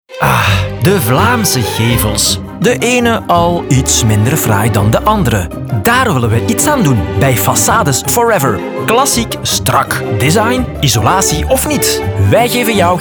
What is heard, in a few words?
RADIOSPOT; FACADES FOREVER